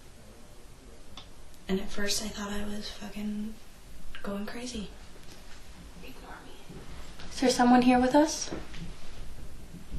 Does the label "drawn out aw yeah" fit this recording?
No